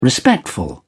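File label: "respectful", British English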